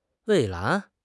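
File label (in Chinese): "蔚蓝", Chinese; HSK6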